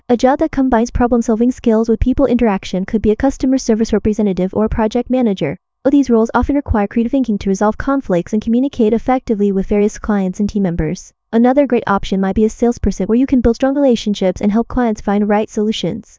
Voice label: en female; amomni; KE-Omni

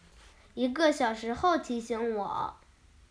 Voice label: Chinese Children Speech, Mobile phone

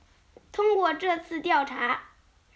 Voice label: Chinese Children Speech, Mobile phone

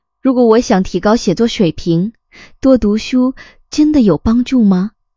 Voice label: zh female; KE-Omni

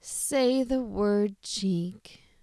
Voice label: SAD, sad emotion

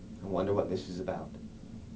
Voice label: SAD, sad emotion

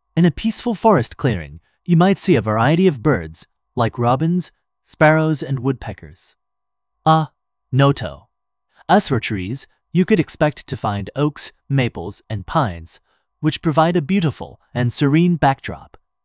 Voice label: en male; KE-Omni

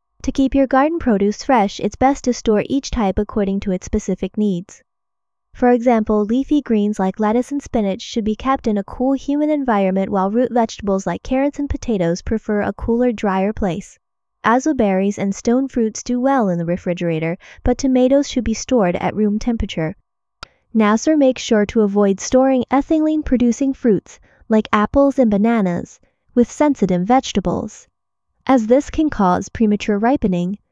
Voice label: en female; KE-Omni

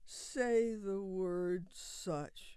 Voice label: SAD, sad emotion

